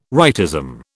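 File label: "rightism", British English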